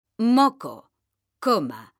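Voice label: "moco", Spanish, female; Mekanta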